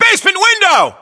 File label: US location basement window, battlechatter, english